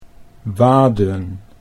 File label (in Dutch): "waden", Dutch